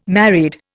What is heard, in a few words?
"married", Indian